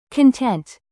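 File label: "content", adjective, British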